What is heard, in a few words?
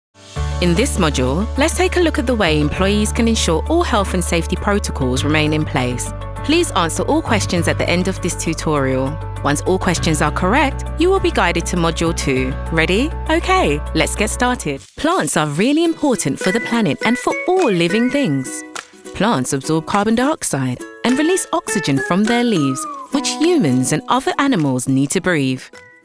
E-Learning